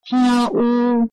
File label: "understand", Taiwanese